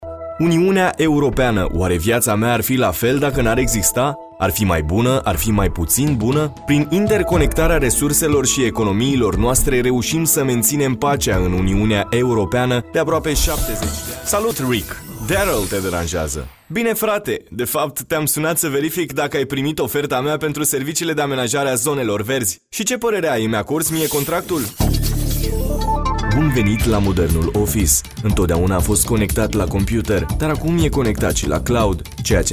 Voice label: romanian voice locutor rumano